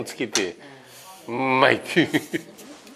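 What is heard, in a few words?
nmai, Minamiyamagata dialect Japanese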